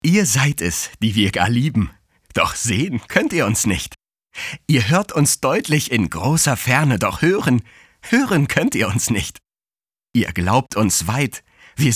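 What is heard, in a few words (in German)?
fröhlich, Männer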